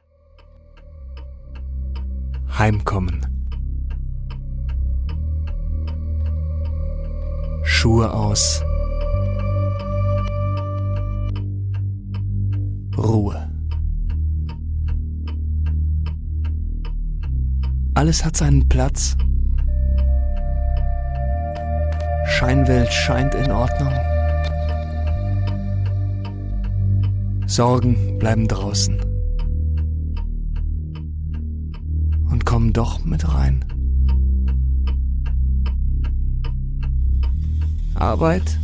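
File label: voice over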